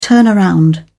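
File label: "turnaround", British English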